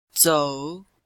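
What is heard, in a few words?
"zǒu", Chinese; Cours de Chinois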